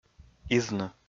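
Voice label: "изны", Komi, male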